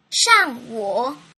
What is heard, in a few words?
"shangwu", mandarin